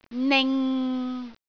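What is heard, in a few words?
"ning6", Cantonese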